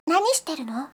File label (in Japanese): "nani shiteru no", voice